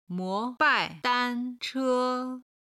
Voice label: "mo2 bai4 dan1 che1", chinese